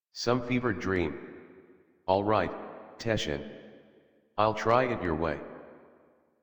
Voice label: Adult, en, Dialog